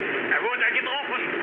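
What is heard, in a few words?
German aircraft destroyed mood med, speech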